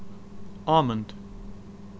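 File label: "almond", British English, male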